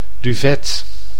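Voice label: "duvet", Dutch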